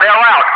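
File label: "bail out", English speech, Wingman; DCS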